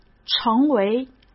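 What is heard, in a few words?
"成为" Mandarin Chinese; chinese flashcards; hsk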